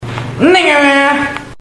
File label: chat